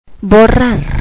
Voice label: "erase to", spanish computer